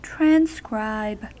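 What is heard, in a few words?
"transcribe", US English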